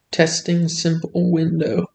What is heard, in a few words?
Testing SimpleWindow, screen reader audio; kiss-flixel; simplewindow-test